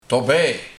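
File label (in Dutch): "Dube" Dutch dialect; Daarbij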